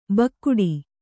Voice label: "ಬೇಗಡೆ", Kannada